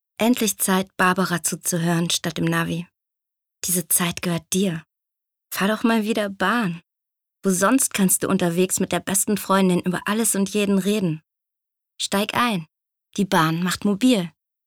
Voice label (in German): Webung; DB